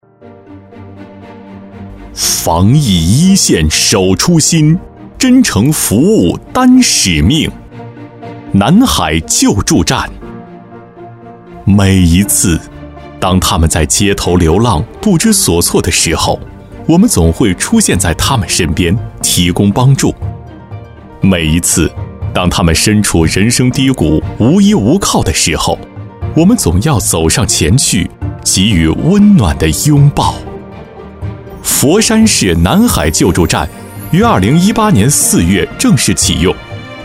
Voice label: 专题片大气; 南海救助站

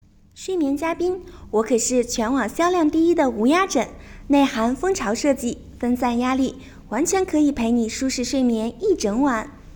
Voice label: 甜美

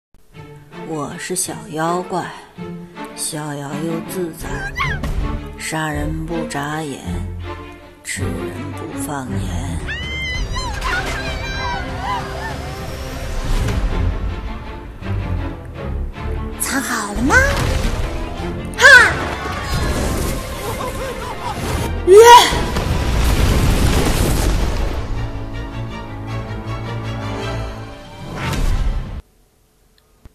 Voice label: 正太音; 哪吒

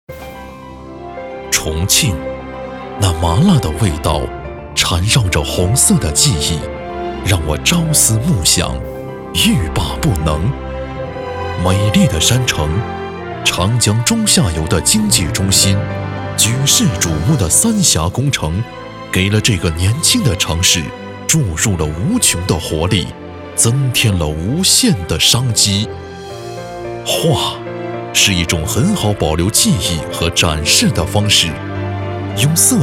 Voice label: 宣传片头; 重庆

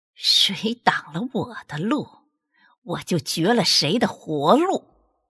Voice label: 凶残

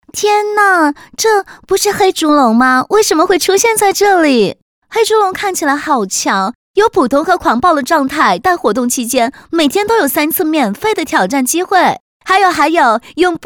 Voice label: 港腔

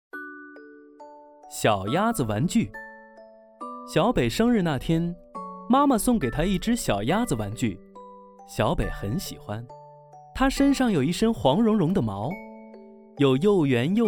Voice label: 样音绘本

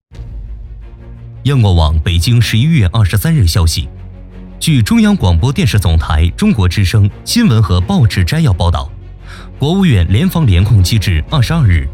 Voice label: 新闻和报纸摘要报道; 央广网

